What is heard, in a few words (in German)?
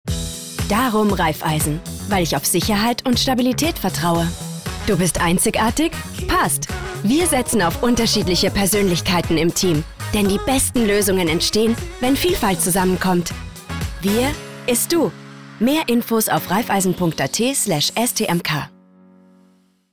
Frau OFF